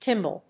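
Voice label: "timbal", English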